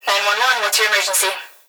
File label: Emergency Human Female Voice Police Dispatch 9-1-1 What's Your Emergency; emergency effects